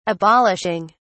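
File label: "abolishing", British English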